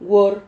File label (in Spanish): "guor", Silabas